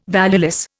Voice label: "valueless", Indian